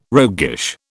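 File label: "roguish", British English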